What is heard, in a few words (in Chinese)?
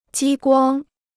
"激光", Chinese